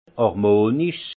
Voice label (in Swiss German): "harmonisch", alsace; harmonieux; vin